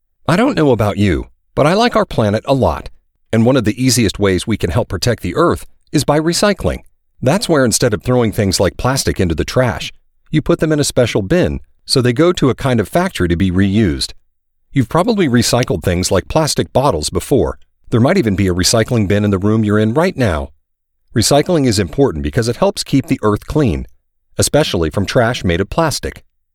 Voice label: US English eLearning